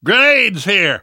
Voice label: spot grenades, survivor voice; namvet; Left 4 Dead 2; left4dead2 dlc2

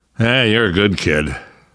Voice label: thanks, namvet, survivor voice; Left 4 Dead 2; left4dead2 dlc3

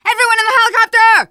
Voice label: arrive chopper, teen girl; player; survivor; voice

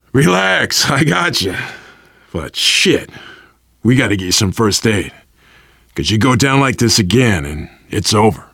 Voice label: revive critical friend, voice, biker

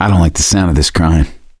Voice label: heard witch, voice; Left 4 Dead 2; survivor; gambler

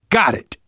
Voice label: kill confirmation, manager, survivor, voice; Left 4 Dead 2; left4dead2 dlc3

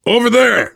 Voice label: look, voice, biker; Left 4 Dead 2; survivor

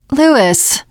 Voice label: name louis, teengirl, voice

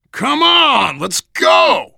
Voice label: move on, voice, biker

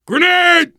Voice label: grenade, survivor voice, biker; Left 4 Dead 2

